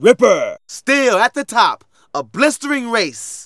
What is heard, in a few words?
Narration